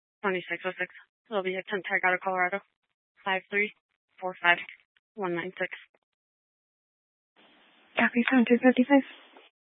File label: Sheriff Dispatch, scanner; Mesa County